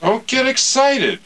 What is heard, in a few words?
Excited